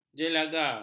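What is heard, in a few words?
"jelaga", Malay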